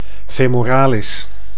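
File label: "femoralis", Dutch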